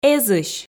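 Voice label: "эзысь", Komi, female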